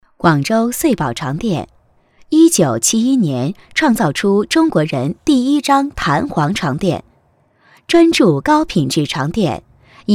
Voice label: 专题 产品 稳重; 床垫; 广州穗宝床垫

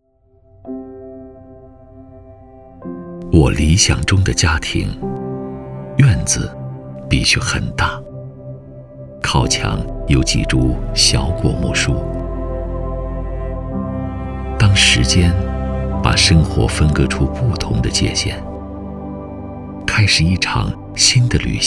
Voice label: 男国 宣传片 地产 品质地产; 龙山

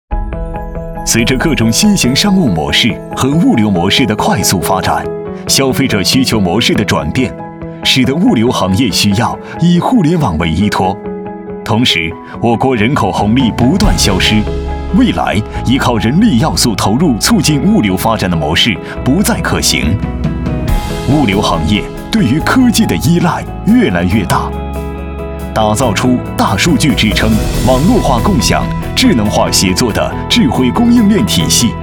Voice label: 男国 专题 企业 时尚; 顺丰集团

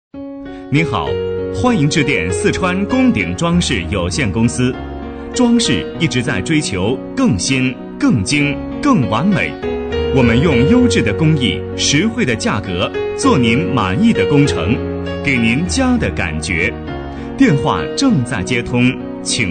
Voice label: 彩铃; 四川功鼎装饰

